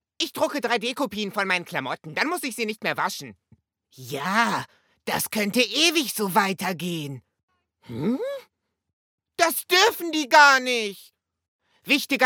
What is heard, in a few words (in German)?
Synchron Trickstimme; LOFT VOICES